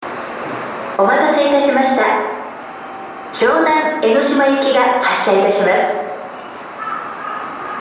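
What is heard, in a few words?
Ofuna departure, Shonan monorail; syonanmonorail; 発車放送; 大船駅; 湘南江の島行きのアナウンスです。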